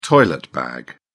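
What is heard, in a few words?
"toilet bag", British English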